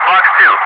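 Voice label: "FOX 2", English, Wingman; DCS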